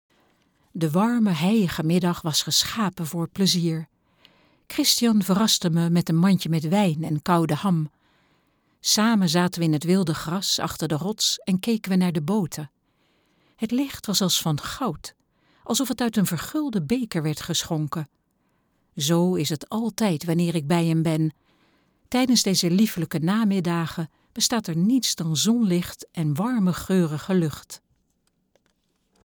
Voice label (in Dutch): audioboek